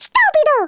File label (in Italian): "Stupid", Italian, Speech; Worms Armageddon